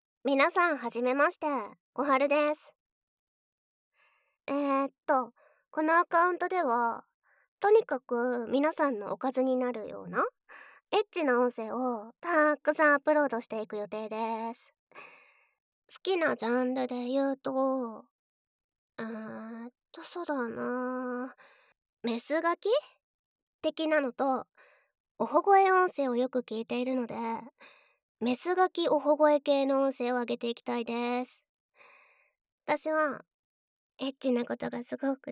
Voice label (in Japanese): 自己紹介っ, ASMR; SEあり